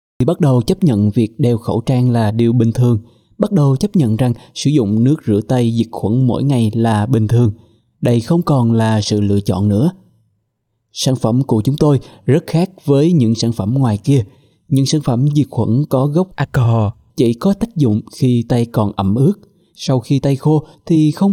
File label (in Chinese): 越南语男